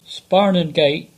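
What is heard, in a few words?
"Sparnon Gate", English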